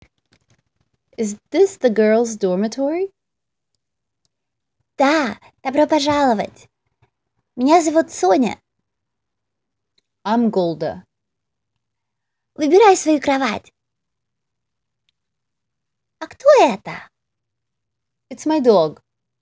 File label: Voice Over English and Russian